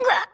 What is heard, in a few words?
female; baldurs1